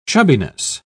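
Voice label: "chubbiness", British English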